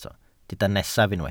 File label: "nesaf", Welsh